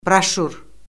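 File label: "broşür", Azerbaijani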